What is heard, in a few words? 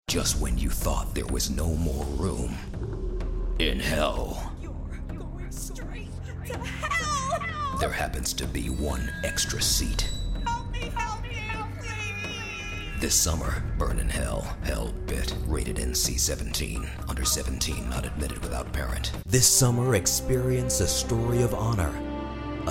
american male trailers